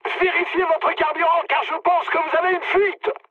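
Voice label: French tank damaged mood high; War Thunder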